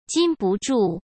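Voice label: "禁不住", Mandarin Chinese; hsk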